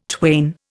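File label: "twain", Indian English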